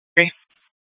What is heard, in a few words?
Phx PD Fire Dispatch; RWC